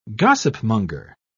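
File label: "gossipmonger", English, British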